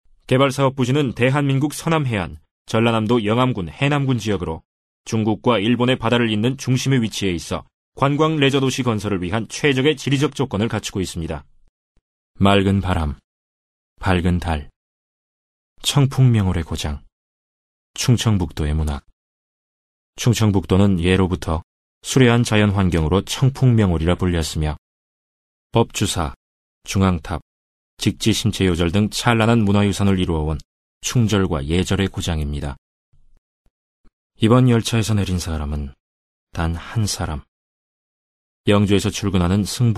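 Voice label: Korean, male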